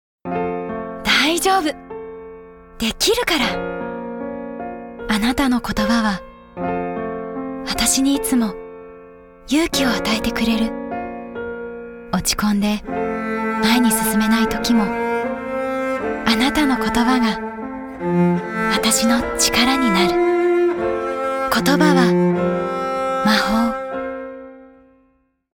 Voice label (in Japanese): モノローグ風ナチュラル